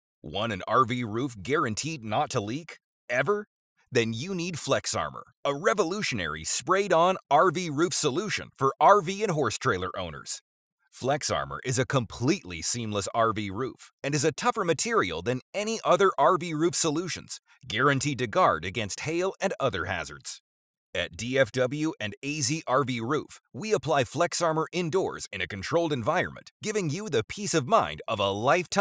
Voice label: English voice over product introduction

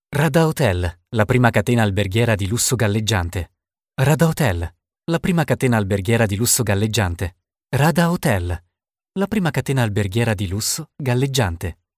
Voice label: italian calm voiceove

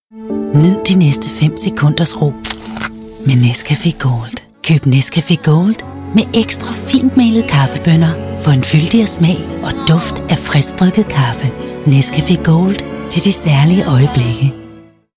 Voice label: Danish Voice Over Sample

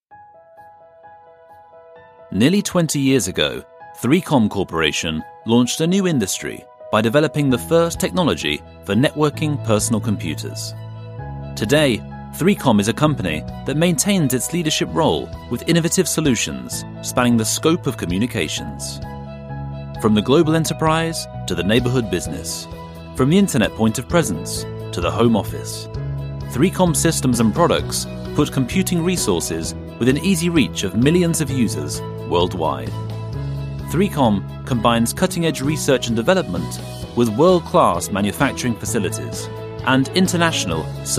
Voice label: English Voice Over corporate introduction

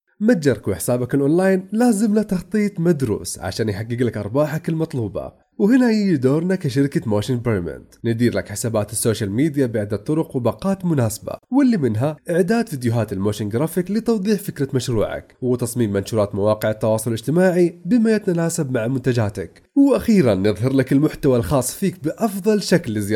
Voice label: Arabic Voice Over

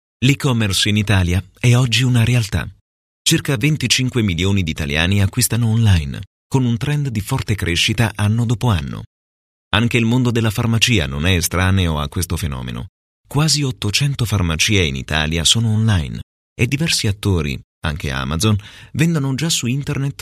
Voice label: short informative voiceover

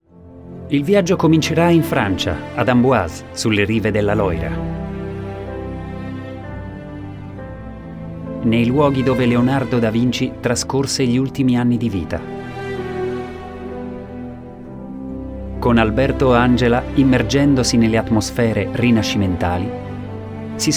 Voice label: italian voice over audio book

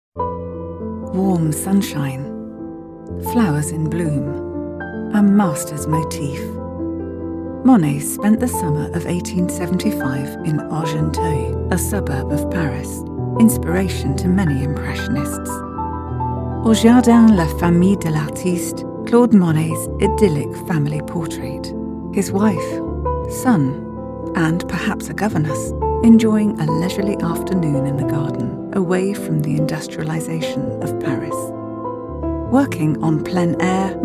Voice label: emotional storytelling nature

